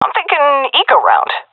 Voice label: request eco, seal fem; vo; Counter Strike Model Pack; CS:GO